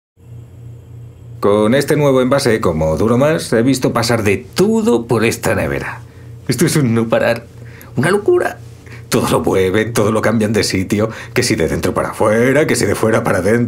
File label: audiobook, spanish, male